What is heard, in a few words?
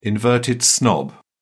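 "inverted snob", British English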